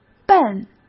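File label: "奔", Mandarin Chinese; chinese-flashcards; hsk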